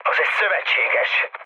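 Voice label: player friendly fire, mood med, Hungarian; War Thunder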